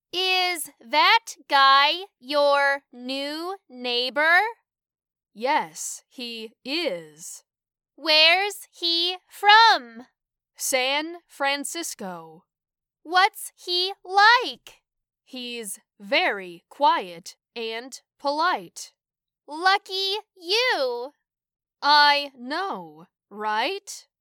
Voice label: Dialogue Semi Natural